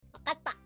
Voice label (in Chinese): 我知道了（爽朗乖巧 わかった